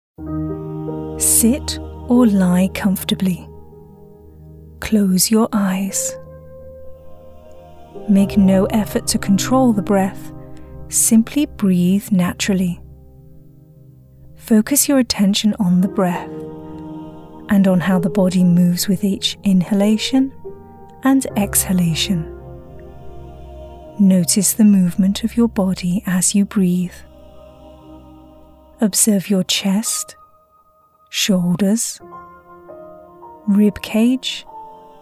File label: meditation